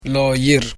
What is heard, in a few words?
"soon", Sora